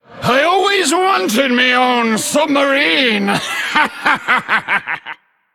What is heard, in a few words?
Male Undead; Looter